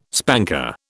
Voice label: "spanker", British

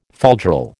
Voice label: "falderal", American

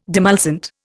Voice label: "demulcent", Indian

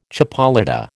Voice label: "chipolata", American

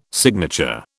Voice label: "signature", British

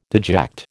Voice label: "deject", American

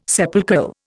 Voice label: "sepulchral", Indian

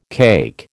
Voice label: "cake", American

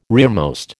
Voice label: "rearmost", American

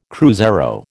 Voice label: "cruzeiro", American

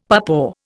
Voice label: "papaw", Indian; Dictionary

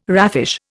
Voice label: "raffish", Indian

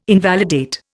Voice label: "invalidate", Indian

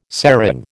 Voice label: "serang", American

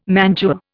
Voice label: "mantua", Indian

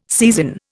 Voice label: "seisin", Indian

